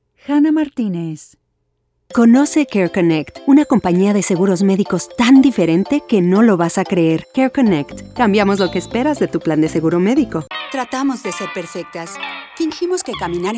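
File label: Spanish, voiceover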